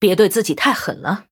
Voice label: Sorry, zh

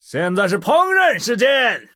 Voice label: Male Human Start; zh

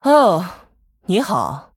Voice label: Female Human Greetings, zh

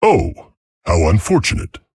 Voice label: Male Tauren Oops

